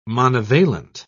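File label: "monovalent", British English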